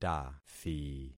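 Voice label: "tathi", concatenated syllables; Affixing experiment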